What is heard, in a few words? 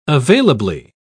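"availably", English, British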